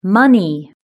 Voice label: "money", british english